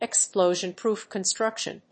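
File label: "Explosion-Proof Construction", English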